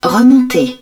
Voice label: PULL UP, french; Battle Engine Aquila

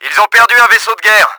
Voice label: BATTLESHIP DESTROYED, french; Battle Engine Aquila

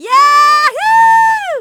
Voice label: fem

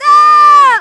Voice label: ejection fem

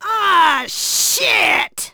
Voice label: rate fem

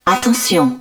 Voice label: Warning, french; Battle Engine Aquila

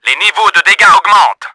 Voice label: HEALTH LOW, french; Battle Engine Aquila

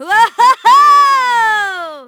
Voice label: 2 roues fem

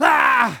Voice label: agression hom

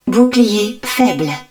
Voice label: SHIELD LOW, french; Battle Engine Aquila

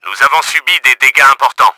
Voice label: HEALTH LOW, french; Battle Engine Aquila

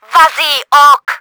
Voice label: RACING START, french; Battle Engine Aquila

